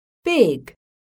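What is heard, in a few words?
"BIG", English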